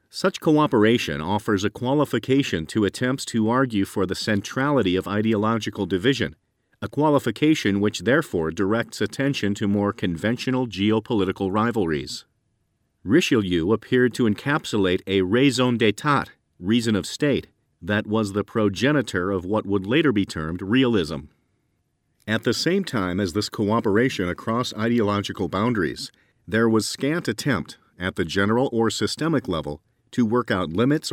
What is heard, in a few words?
audiobook excerpt